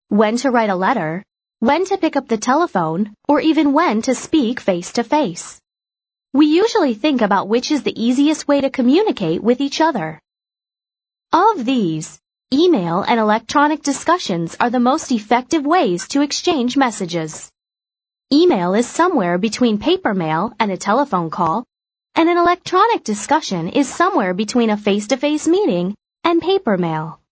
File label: American English, F